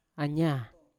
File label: "anya", Yawa; road; unat